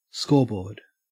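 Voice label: "scoreboard", Australian English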